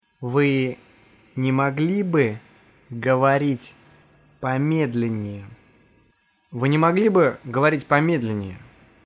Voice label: speak slowly, russian; Вы не могли бы говорить помедленнее?; Vy ne mogli by govorit' pomedlennee?